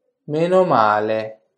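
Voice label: "meno male" Italian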